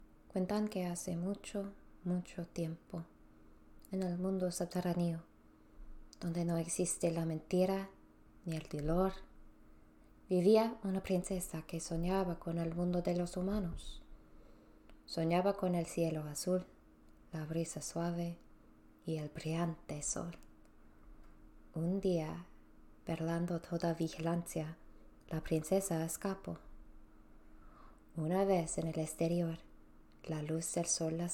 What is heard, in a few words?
Spanish; Pan's Labyrinth